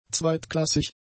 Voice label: "zweitklassig", German; adjectives